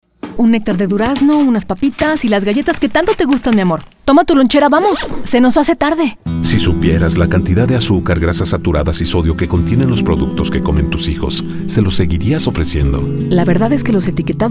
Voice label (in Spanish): Spot de Radio; Etiquetados